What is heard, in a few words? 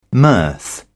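"mirth", British English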